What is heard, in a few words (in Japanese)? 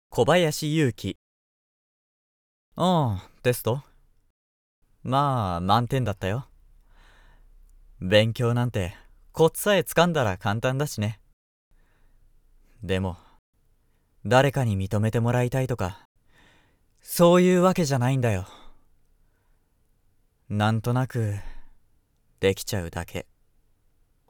ボイスサンプル セリフ クールで興味がない; 【宅録】